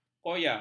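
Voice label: "koya", Malay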